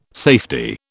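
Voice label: "safety", British English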